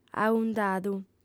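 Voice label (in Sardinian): "aundadu", female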